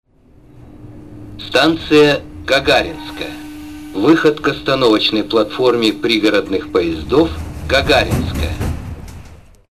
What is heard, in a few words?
male